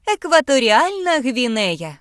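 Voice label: "equatorial guinea", Ukrainian; gcompris; geography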